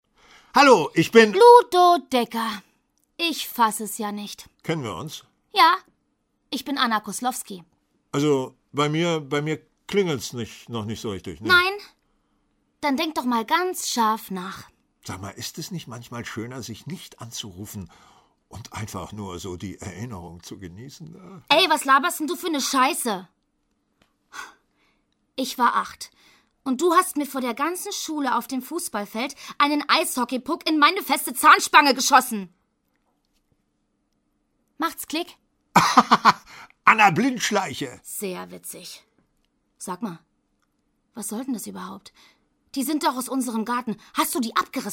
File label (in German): hoerspiel